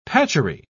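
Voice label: "patchery", British English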